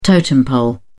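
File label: "totem pole", English, British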